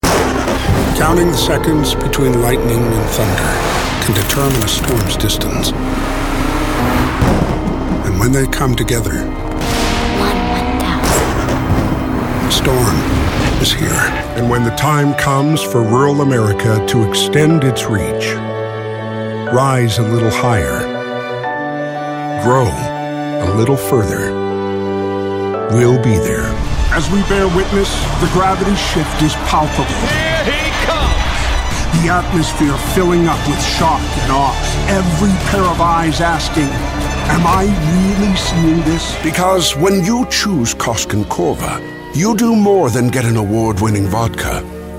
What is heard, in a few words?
Commercial